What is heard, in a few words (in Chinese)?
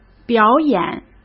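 "表演", Mandarin Chinese; hsk; chinese-flashcards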